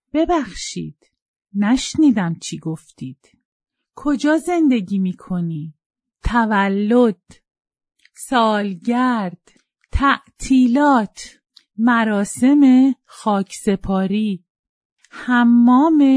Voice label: Farsi vocabulary